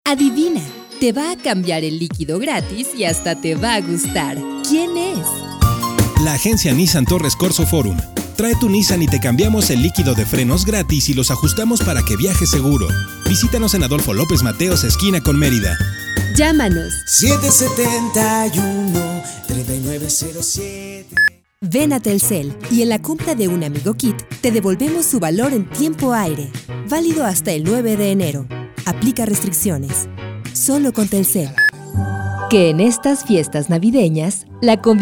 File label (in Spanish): SPOTS DE RADIO